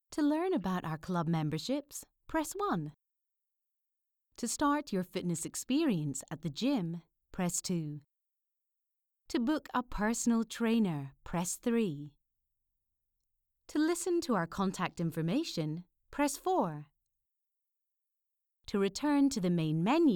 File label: IVR sample